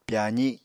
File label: "pyazi", Apatani